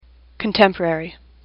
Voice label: "contemporary", English; AWL